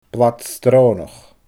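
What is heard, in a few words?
"blad sroonach", Scottish Gaelic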